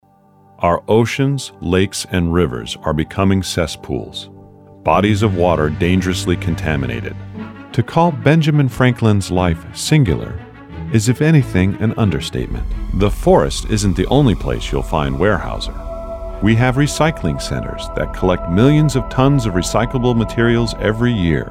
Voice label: Narration